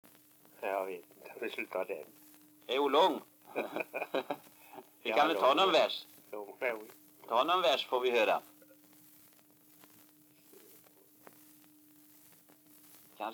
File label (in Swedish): dialekter; Folkminnen; Svenska sagor